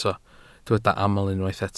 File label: "aml", Welsh